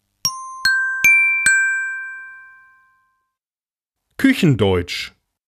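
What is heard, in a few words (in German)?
"Küchendeutsch", German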